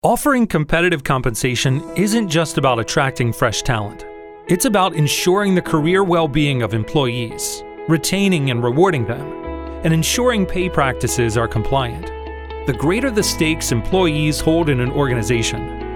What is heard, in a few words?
20s 30s Male eLearning